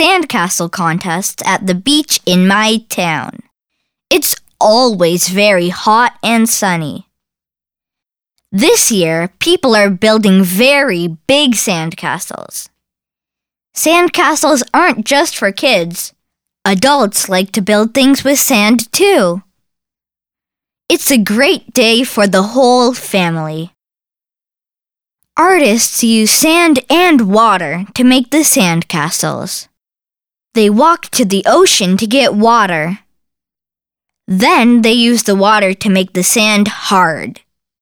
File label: Class Audio Sample Happy Reader; Skills Book 3; Sandcastles